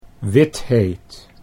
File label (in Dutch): "witheet", Dutch